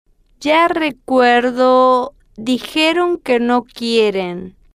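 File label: ya Recuer Dijeron Que No Quieren, Spanish, female, slow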